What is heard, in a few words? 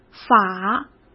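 "法", Mandarin Chinese; chinese flashcards; hsk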